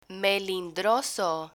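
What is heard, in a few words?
"melindroso", Spanish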